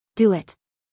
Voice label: "Do it" us female; everyone-can-use-english

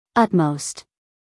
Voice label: "utmost", us female

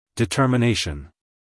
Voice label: "determination", us male

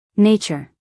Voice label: "nature", US female

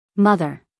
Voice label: "mother" us female; everyone-can-use-english

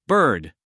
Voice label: "bird" en us male; everyone-can-use-english

